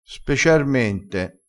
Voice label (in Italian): "speciarmente", crespinese